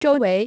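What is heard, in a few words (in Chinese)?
"周围" Chinese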